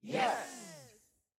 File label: audience "yes"